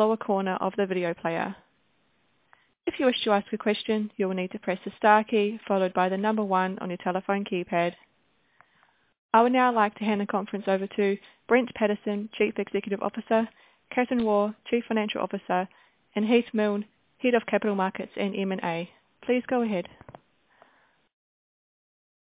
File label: Teleconference recording; Oceania Healthcare Limited Full Year Result Announcement